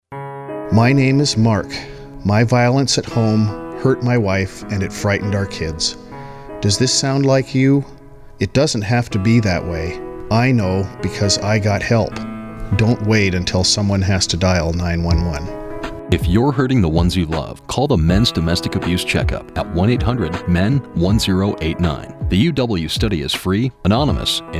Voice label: Radio Ad